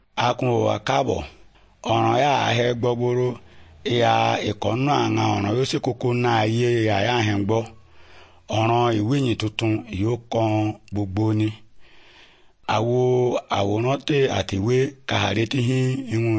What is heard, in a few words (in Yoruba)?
good news yoruba, bible